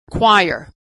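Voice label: "choir", American English; vowel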